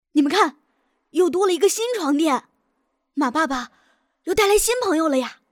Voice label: 童声 热情 稳重 男童

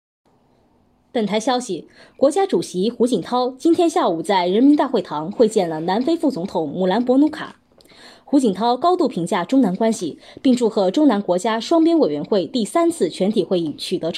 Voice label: 新闻播读; 胡锦涛面见

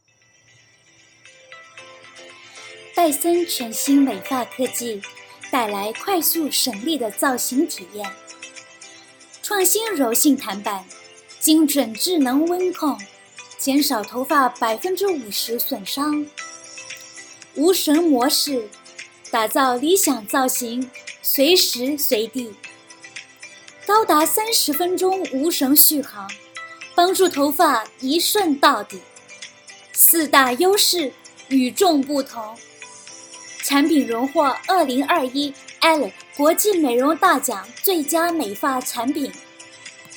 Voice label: 国语－广告词

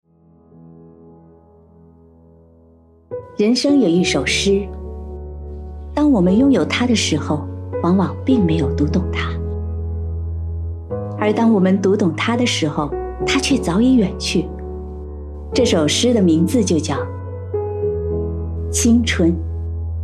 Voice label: 朗读者; 青春; 我用专业设备录音，有自己的录音室

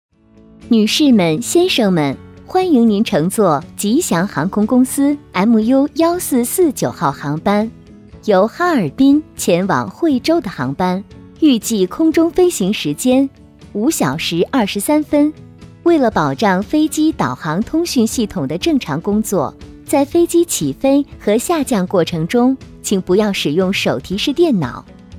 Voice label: 飞机温馨提示

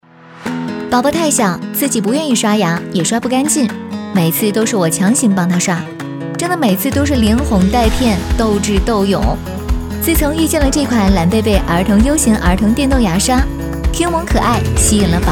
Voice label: 抖音自媒体等配音

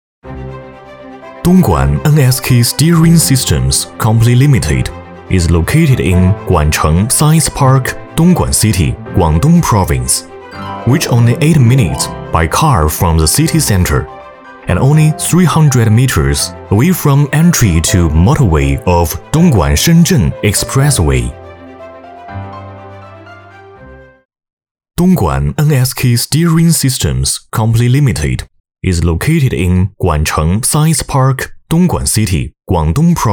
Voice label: 英文试音; 蓝鲸配音

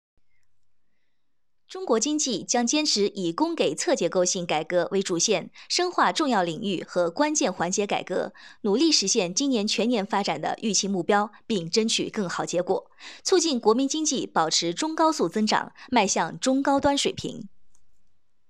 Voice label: 试音新闻类

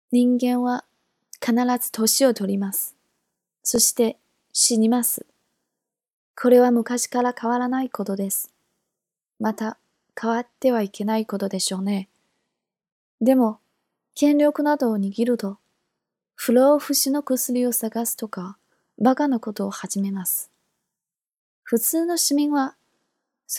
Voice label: 日语叙述

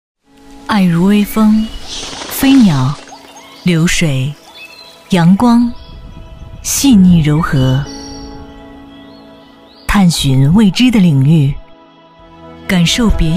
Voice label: 独白广告; 奔驰 独白广告

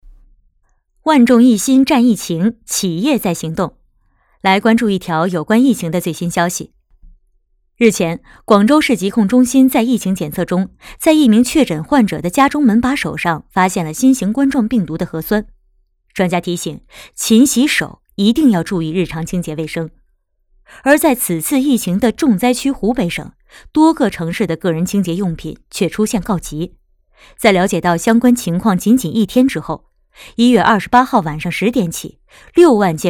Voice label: 新闻类; 宝洁支援信息

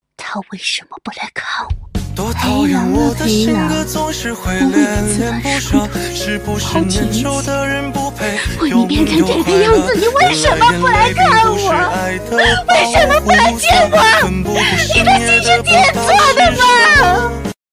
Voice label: 嘶吼御姐

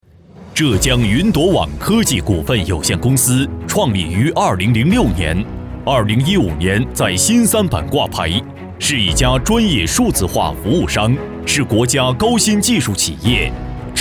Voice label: 专题 大气稳重

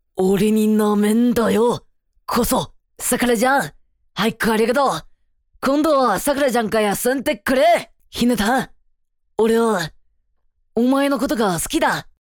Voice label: 正太样音

